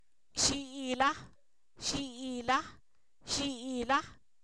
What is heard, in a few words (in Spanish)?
"borrego", Zapoteco; animales; INALI